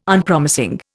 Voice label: "Unpromising", Indian